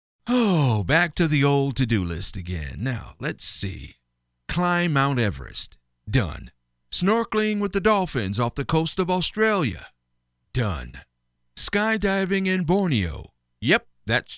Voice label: male american voice over character, english american